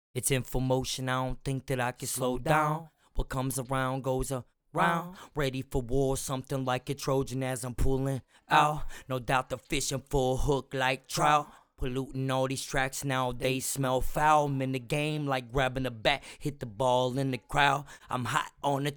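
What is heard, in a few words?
hip hop 81 Cm rapsing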